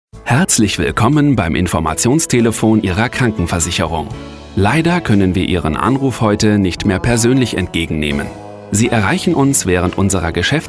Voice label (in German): telefonansage mix